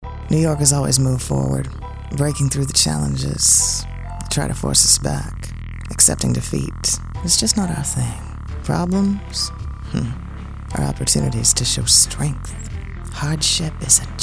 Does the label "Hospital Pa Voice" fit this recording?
No